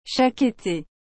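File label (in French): "chaque été", French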